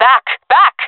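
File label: request fallback, female voice; player vo jungle fem; Counter Strike Model Pack; CS:GO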